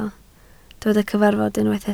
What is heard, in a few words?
"cyfarfod", Welsh